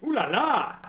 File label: french guy